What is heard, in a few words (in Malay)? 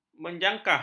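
"menjakah", Malay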